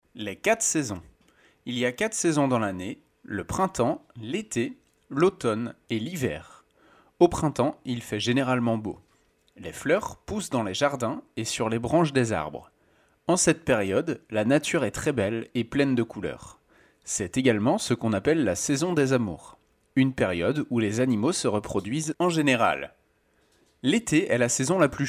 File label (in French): dictee